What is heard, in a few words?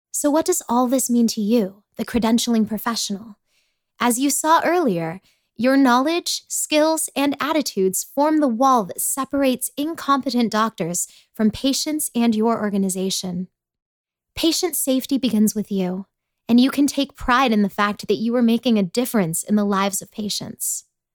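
voice over female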